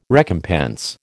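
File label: "recompense", American English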